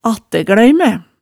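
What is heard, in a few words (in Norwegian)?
"attægløymæ", Hemsedal dialect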